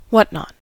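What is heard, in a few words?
"whatnot" English, US; pronunciations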